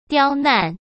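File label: "刁难" Mandarin Chinese; hsk; chinese-flashcards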